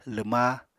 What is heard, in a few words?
"lwma", Apatani